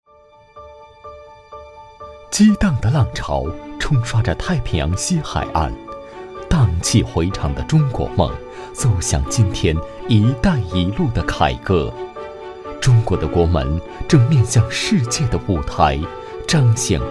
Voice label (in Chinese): 男 专题 宣传篇; 潍坊港; 潍坊港宣传篇